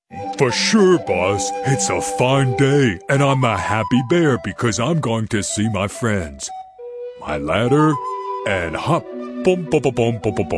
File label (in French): Voix Off Voice Over; Bear